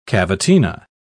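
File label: "cavatina", British English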